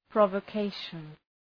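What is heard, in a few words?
"provocation", English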